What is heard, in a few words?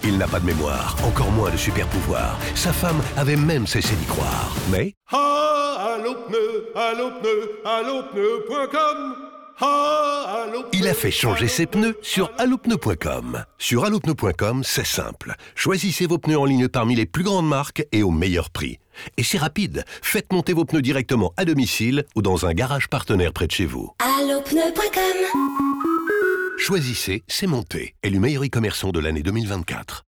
Radio Spot; Allopneus